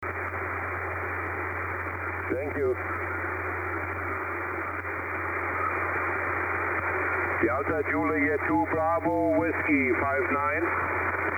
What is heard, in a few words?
40m ssb